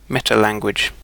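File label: "metalanguage", British English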